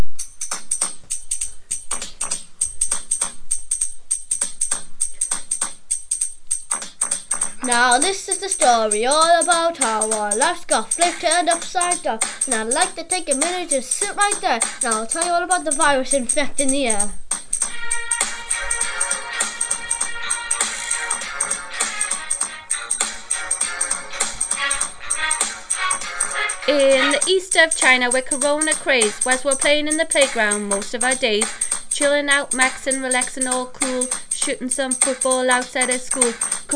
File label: Radio advert; designing a new product